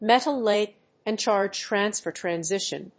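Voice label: "metal-ligand charge transfer transition", English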